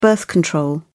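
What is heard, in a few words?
"birth control", British English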